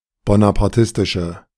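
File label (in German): "bonapartistische", German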